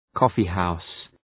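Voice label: "coffee house", English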